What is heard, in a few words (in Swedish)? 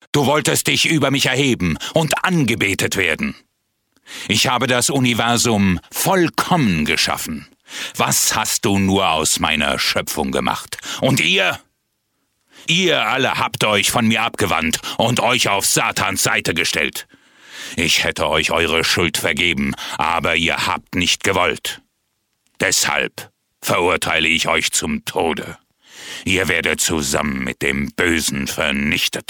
Arg Tysk